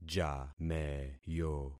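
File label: "jameyo", concatenated syllables; Affixing experiment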